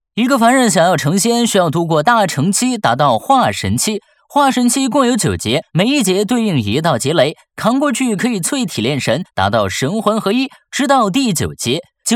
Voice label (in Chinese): 年轻讲述 游戏广告; 以仙之名